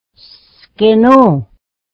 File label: "slow", mohawk